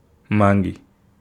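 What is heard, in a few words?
"mangi", Wolof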